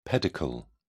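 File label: "pedicle", English, British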